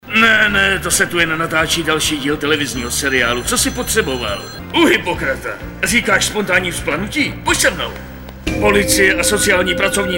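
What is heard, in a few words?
male, Czech, drama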